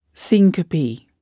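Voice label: "syncope", English word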